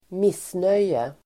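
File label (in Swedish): "missnöje", Swedish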